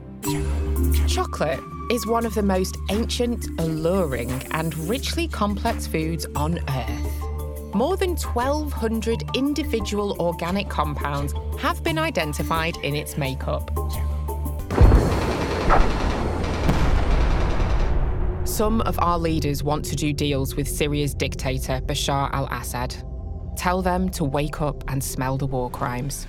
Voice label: Narration